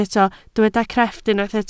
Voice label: "crefft", Welsh